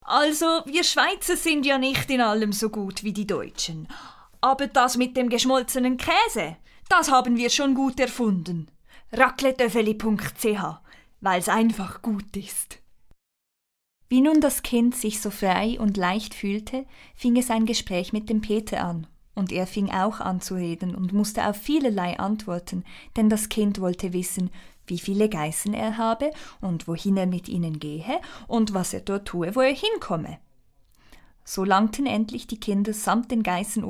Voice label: German with a Swiss Accent